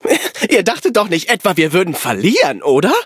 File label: victory, de; Cookie Run